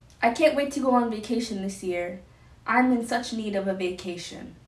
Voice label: noisy reference